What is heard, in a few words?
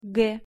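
"г" Russian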